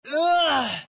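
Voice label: pain, Chinese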